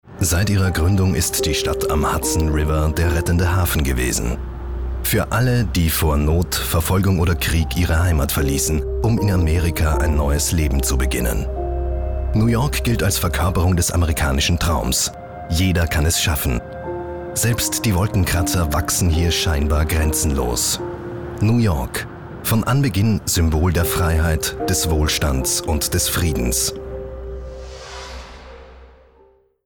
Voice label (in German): doku; new york